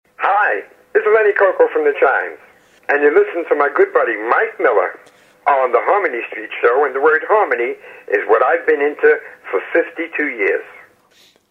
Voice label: promo